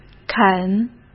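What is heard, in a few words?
"肯", Mandarin Chinese; hsk; chinese-flashcards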